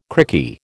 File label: "crikey", American